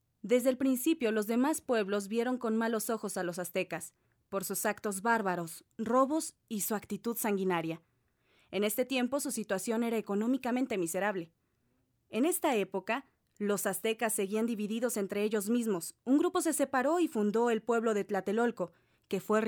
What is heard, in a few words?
locutora mexico mexican voiceover; locutora nativa de Mexico